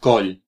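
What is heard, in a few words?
"neck", Catalan; gcompris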